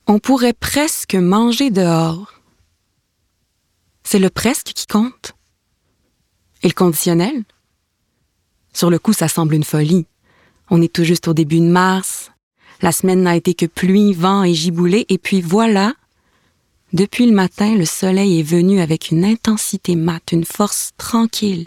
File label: narration